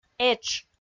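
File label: "этш", Komi, female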